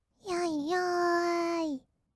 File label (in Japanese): "やいやーい", kawaii voice; 1200 kawaii voice!!; 色々なワンショット